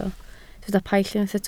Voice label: "paill" Welsh

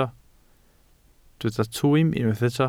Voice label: "twym", Welsh

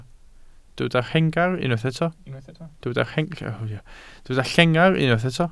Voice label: "llengar" Welsh

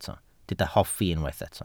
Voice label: "hoffi", Welsh

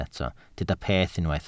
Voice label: "peth" Welsh

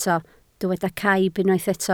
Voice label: "caib", Welsh

